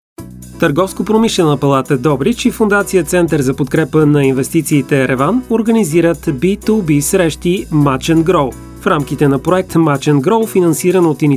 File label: radio spot; promotion of minutes